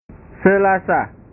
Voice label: "selasa", bahasa